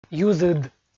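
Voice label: "юзыд", Komi, male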